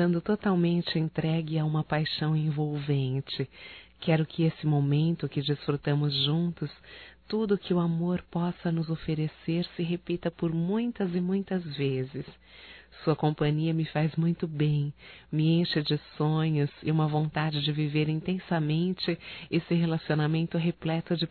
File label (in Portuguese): ADOREI FICAR COM VOCE()F, Românticas fem